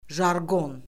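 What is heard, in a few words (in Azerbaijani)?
"jarqon", Azerbaijani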